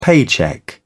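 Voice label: "paycheck", British English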